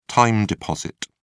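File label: "time deposit", British English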